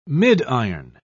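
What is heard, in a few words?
"midiron", British English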